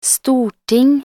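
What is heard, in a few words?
"storting", Norwegian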